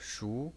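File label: "shu", Mandarin Chinese pinyin, second tone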